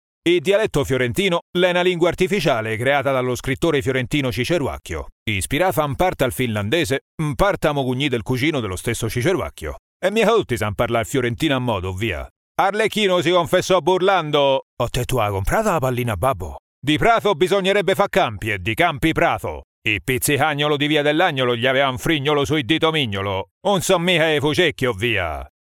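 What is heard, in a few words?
Italian dialetto fiorentino; GoLocalise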